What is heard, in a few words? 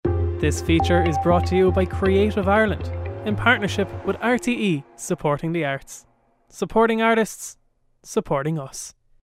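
English Republic of Ireland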